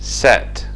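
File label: "set", English; fonetica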